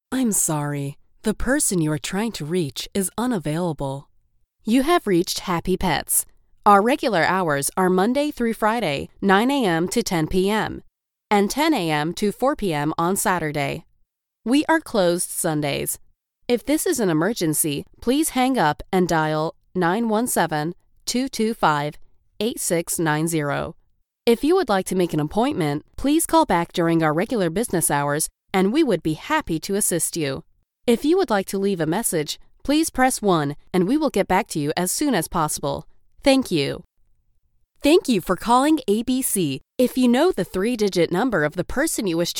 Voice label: Phone System IVR